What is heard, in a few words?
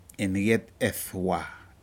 seashell "incet Edwa", Aneityum